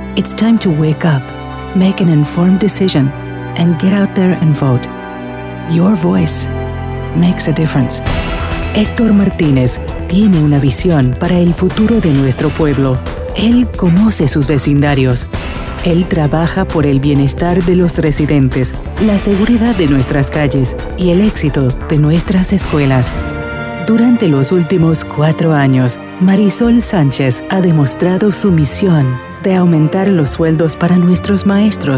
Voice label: Spanish Political